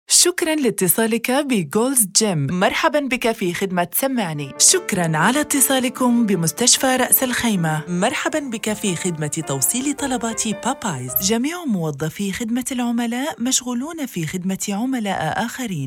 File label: Arabic IVR; IVR showreel; AUDIO POST Production